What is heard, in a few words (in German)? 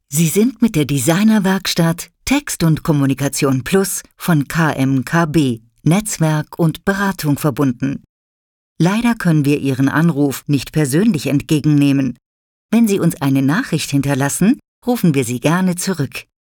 Telefonansage